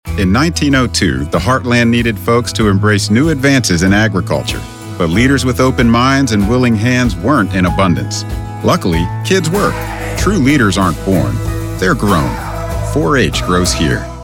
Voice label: Commercial Americana Blue-Collar